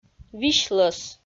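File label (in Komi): "висьлӧс", Komi, female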